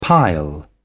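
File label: "pile", British English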